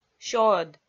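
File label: "сёӧд" Komi, female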